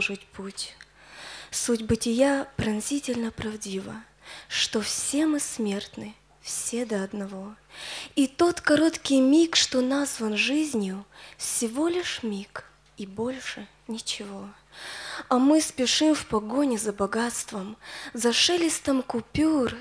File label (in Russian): стих Мы часто слышим то что смерть ужасна; Молодежное общение г. Апшеронск